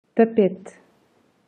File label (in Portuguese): tapete portugal